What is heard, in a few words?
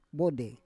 "vode", Roon